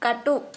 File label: "Finger", Santali